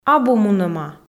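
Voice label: "абу мунӧма", Komi, female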